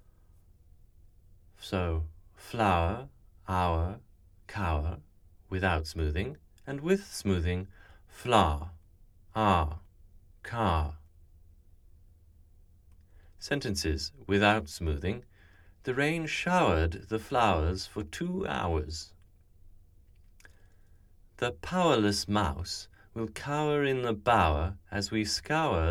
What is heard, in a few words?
Tower, rp; speech; dialects